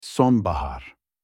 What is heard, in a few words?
fall in turkish